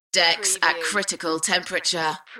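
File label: UK female, voice drop; Decks at critical temperature; beanstalk audio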